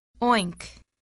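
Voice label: "oink", English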